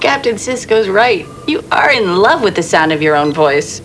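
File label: love voice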